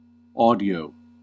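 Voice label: "audio", American English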